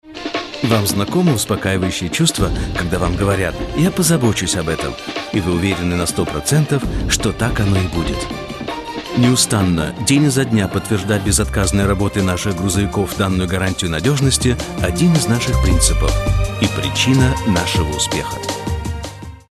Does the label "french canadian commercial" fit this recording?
No